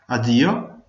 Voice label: goodbye, Venetian